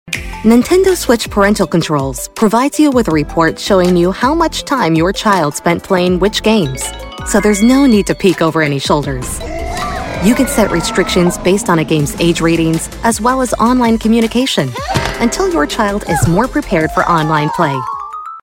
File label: Warm and reasurring, e-learning